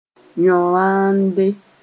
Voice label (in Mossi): "yõ-rãande", moore